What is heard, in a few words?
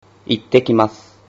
"ittekimasu", Japanese